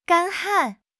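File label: "干旱", Mandarin Chinese; HSK; HSK6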